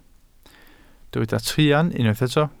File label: "truan", Welsh